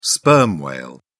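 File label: "sperm whale", English, British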